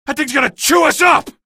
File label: alert gunship, male; video games; npc; source engine; episodic; episode 1